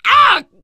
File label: horde attack, teen girl, survivor voice